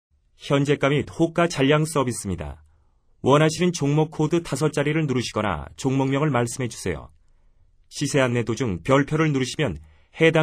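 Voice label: Korean male; ARS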